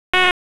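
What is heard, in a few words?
Police District Dispatch, p25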